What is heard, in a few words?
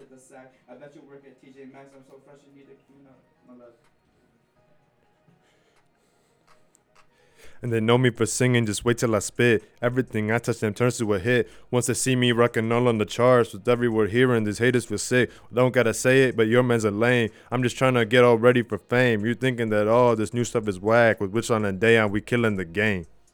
recordin Mic Input; flstudio; Vocals